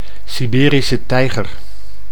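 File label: "Siberische tijger", Dutch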